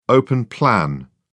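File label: "open-plan", British English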